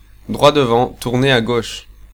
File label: Ah Left Turn, French, male